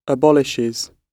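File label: "abolishes", British English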